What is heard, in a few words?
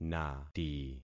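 "nati", concatenated syllables; Affixing experiment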